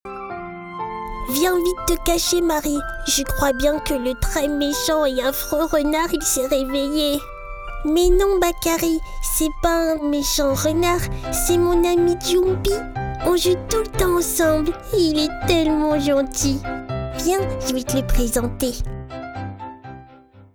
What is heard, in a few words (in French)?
Dessins animes mes 2 voix enfants du garcon craintif apeure; MON AMI DJOUMPI; Bakari; Fille Marie